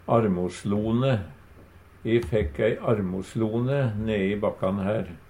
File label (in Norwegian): "armoslone", Numedalsmål